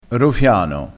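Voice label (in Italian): "rofiano", dialetto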